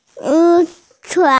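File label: "lún chuán", Mandarin Chinese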